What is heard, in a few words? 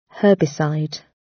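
"herbicide" British English; Time, history; Thời gian, lịch sử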